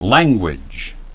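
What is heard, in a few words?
"language", English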